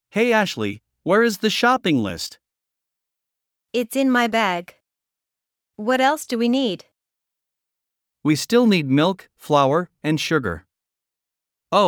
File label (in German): Dialog; Im Supermarkt